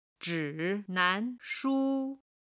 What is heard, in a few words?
"zhi3nan2shu1", Chinese